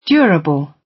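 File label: "durable" British; Business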